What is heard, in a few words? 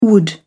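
"wood", British English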